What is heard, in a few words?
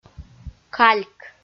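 "кальк", Komi, female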